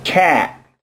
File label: chat